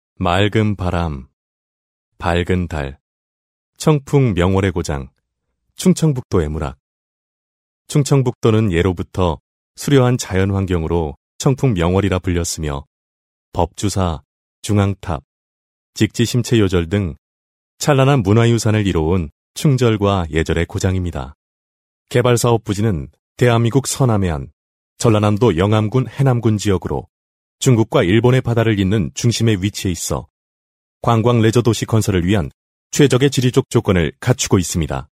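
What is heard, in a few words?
Korean male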